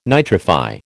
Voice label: "nitrify", American